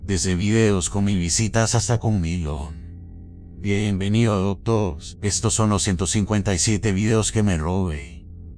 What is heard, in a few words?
vocodes